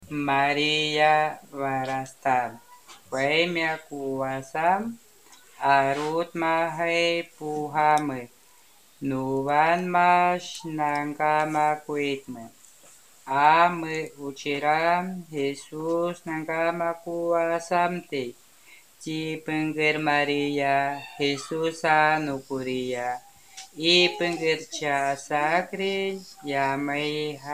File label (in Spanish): ave maria shuar normal